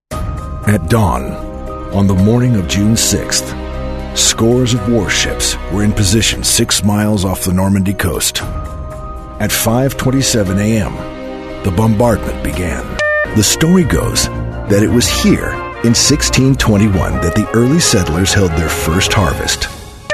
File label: english american voice over male commercial